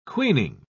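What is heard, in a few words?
"queening", British English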